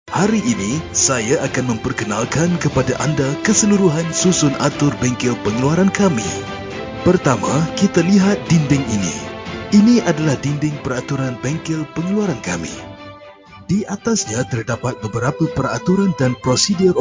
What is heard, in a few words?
Malay male, voice over